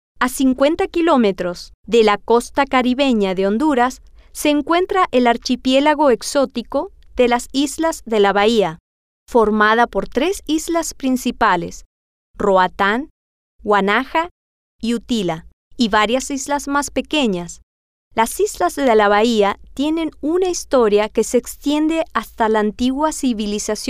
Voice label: Spanish; la Islas De La Bahia

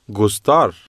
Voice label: "gustar", Spanish

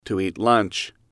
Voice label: "to eat lunch", English

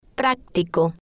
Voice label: "practico", Spanish

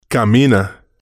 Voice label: "camina", spanish

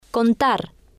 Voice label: "contar", Spanish

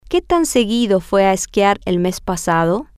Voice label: sp how Often Did She Go Skiing Last Month, F

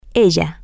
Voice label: her, spanish, F

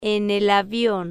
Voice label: "en El Avion", spanish

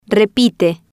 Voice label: "repite", Spanish

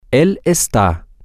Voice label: he is temporary, spanish, M

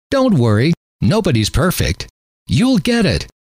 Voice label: don't worry nobody's perfect you'll get it, M

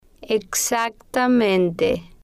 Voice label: "exactamente", spanish

